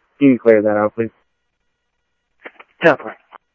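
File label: Sheriff East County, scanner audio trunk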